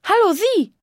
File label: Male greeting, dialogue